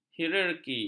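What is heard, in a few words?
"hierarki", Malay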